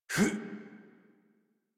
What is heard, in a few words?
Adult Operator Jump, en; Warframe; New War